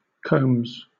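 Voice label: "combs", British English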